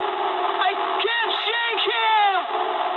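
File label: aircraft damaged mood high, speech English; War Thunder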